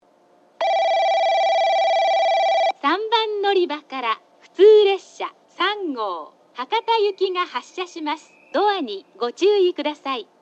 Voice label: yoshizuka local hakata; 3番のりば発車放送（普通3号 博多行き）; 吉塚